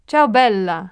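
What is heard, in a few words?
hi cutie, phrases, italian; Aristosoft, Inc.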